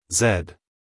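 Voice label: "zed", American English, male; everyone-can-use-english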